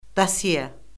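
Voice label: "dosye", Azerbaijani; Orfoqrafiya Lüğəti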